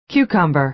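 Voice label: "cucumber", American English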